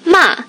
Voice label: "ma" fourth, tones of chinese